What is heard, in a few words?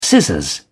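"scissors", British English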